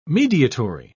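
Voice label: "mediatory", English, British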